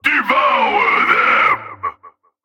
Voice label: Male Chokepoint Line, dialogue